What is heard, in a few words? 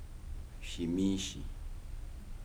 shirt, Kibembe